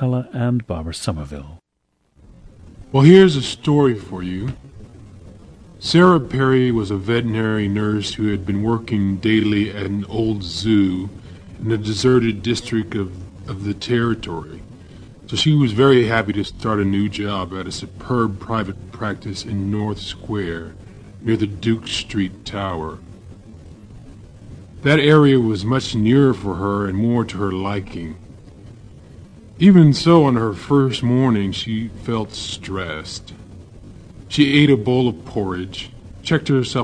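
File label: california, International Dialects of English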